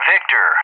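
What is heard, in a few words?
"Victor", English; JTAC; Alphabet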